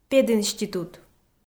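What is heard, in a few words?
"пединститут", Komi, female; audio dict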